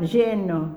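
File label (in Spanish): "lleno", Argentina, La Plata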